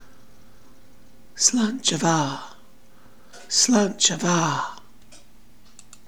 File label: Slainte mhath, Gaelic